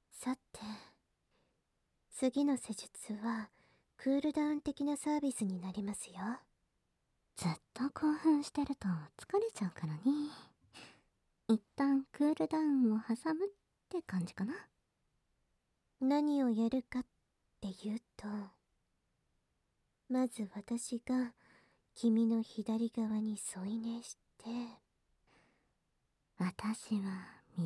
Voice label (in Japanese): 吐息たっぷりオノマトペでクールダウン SE無し, ASMR